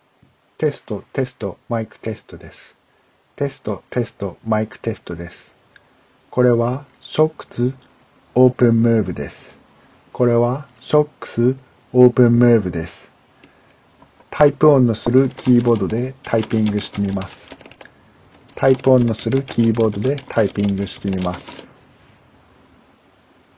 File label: Shokz OpenMove; 録音音声; 意外といい感じで、すこしホワイトノイズが入るものの問題無いレベルでした。ノイズキャンセリングではないのでキーボードのタイプ音は耳障りなレベルで聞こえます。; 骨伝導